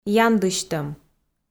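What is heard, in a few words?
"яндысьтӧм", Komi, female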